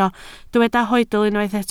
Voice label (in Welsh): "hoedl", Welsh